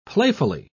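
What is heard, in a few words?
"playfully", British English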